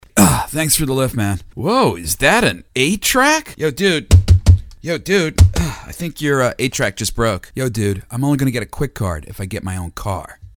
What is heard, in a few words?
Dude, Young & Hip; commercials